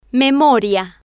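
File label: "memoria", Spanish, female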